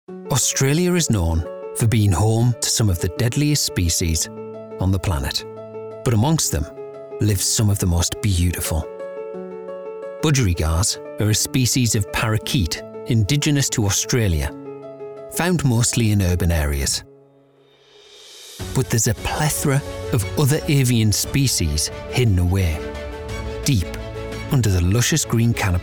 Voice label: Documentary